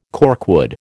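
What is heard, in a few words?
"corkwood", American English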